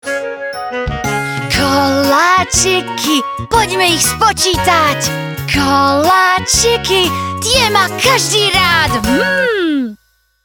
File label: slovak animation